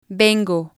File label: "vengo", Spanish